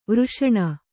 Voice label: "ವರ್ಷಣ", Kannada

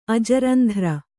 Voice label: "ಅಜರಂಧ್ರ", Kannada

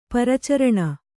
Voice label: "ಪರಿಚಾರಿಣಿ", Kannada

